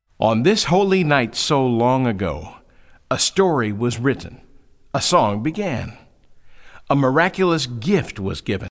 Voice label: narration